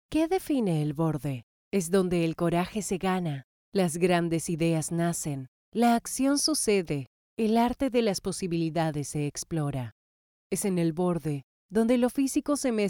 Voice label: spanish latin american corporate videos

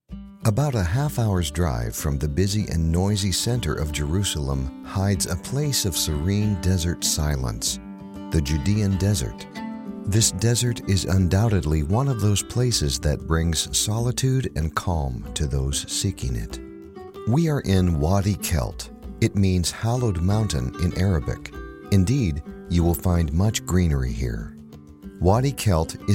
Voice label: english american narration

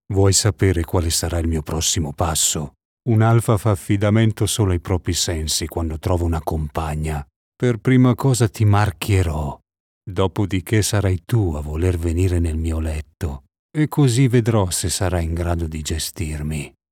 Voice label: italian promos

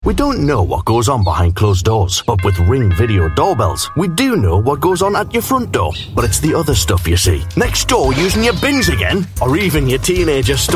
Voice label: english british commercial

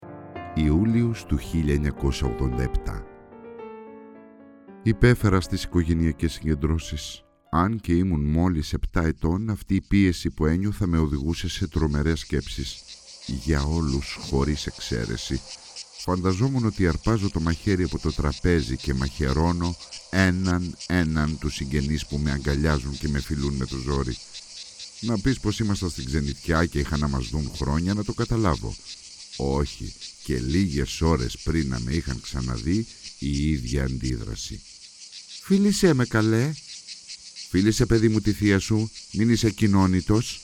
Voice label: greek audiobooks

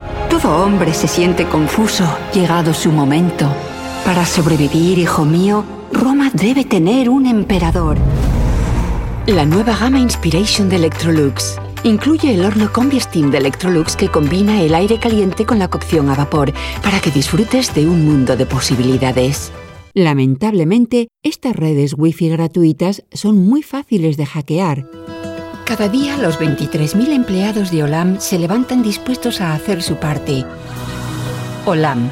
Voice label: spanish castilian commercial

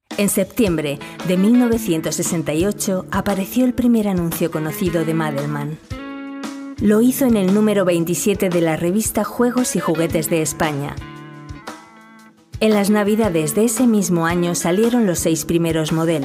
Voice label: spanish narration

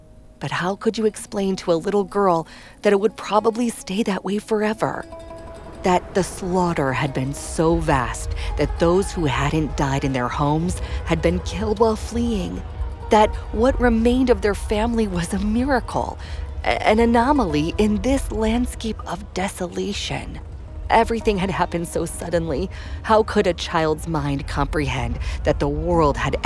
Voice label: english american audiobooks

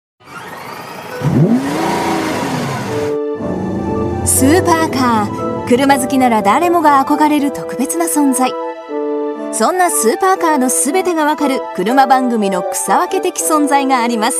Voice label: japanese tv shows

